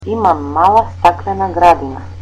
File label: I have a small green house, macedonian